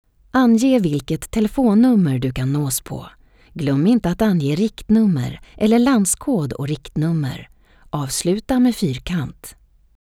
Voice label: swedish female

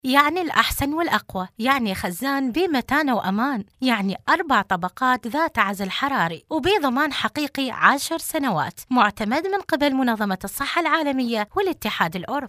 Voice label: iraqi female; dictor; dictor-sounds

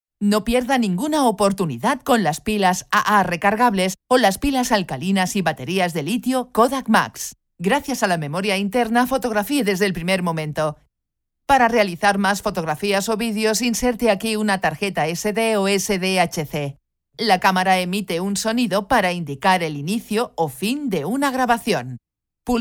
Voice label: spanish female; dictor-sounds

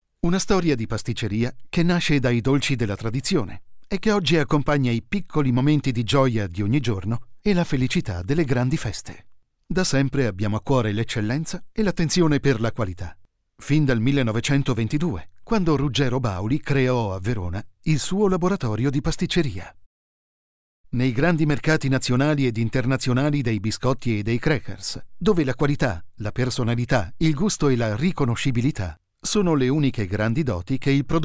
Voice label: italian male

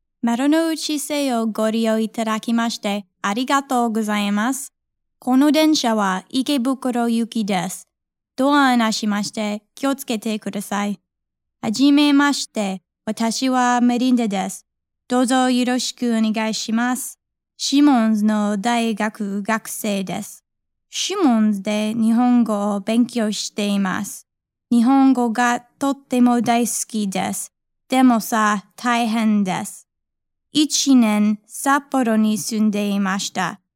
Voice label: japanese female

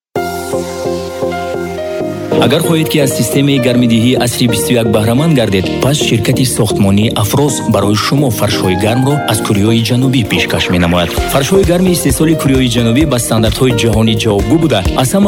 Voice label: tajik male

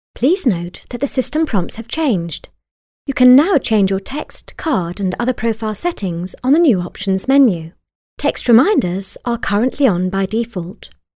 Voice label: eng british female; dictor; dictor-sounds

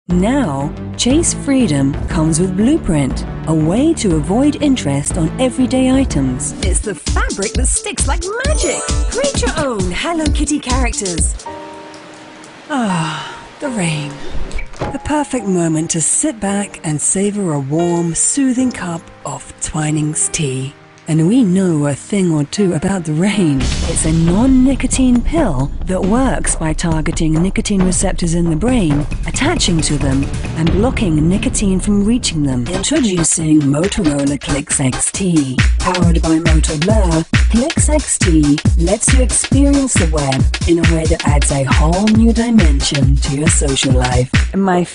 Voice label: eng british female; dictor; dictor-sounds

